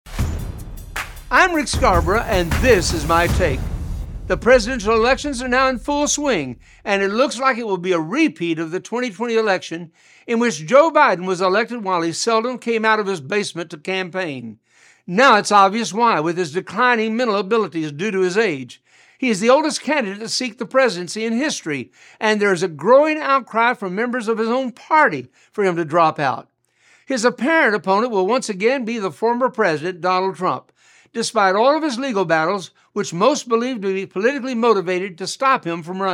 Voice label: radio spot; Joe Biden